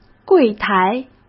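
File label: "柜台", Mandarin Chinese; hsk; chinese-flashcards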